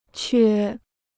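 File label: "缺", Chinese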